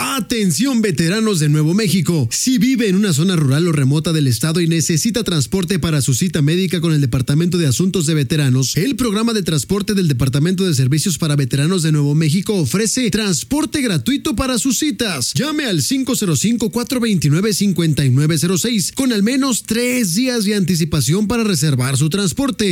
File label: DVS Transportation Spanish; veterans suicide prevention